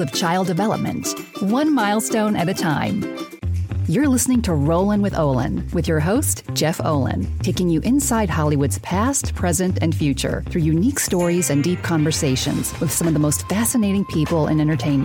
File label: Podcast Intros